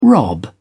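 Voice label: "rob", British English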